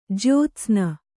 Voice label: "ಜ್ಯೋತ್ಸ್ನಿ", Kannada